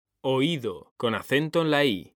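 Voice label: "oído", Spanish, male; mekanta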